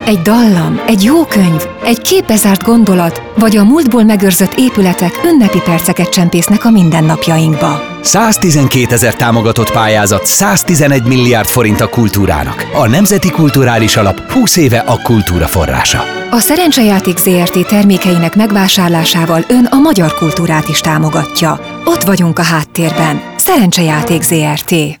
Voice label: radio spot